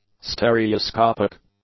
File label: "stereoscopic", English; adjectives